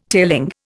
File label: "tailing", Indian